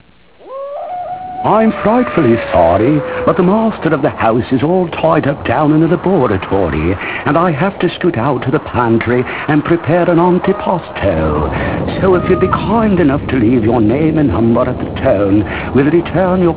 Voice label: spooky